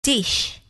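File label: "dish", English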